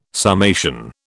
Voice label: "summation", British